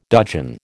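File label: "dudgeon", American English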